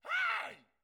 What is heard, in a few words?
man "hey"; angband